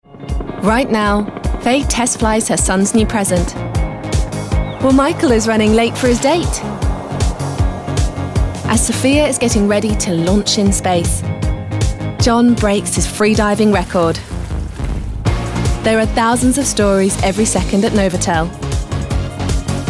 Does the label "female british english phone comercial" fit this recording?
Yes